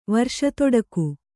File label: "ವರ್ಷತೊಡಕು", Kannada